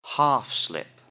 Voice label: "half slip", English; Underwear and Sleepwear